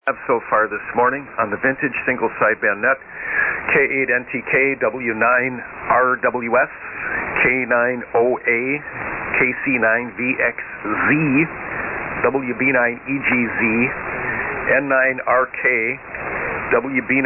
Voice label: SSB Net Check Ins